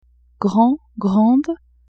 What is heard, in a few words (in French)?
"grand grande", French; vetements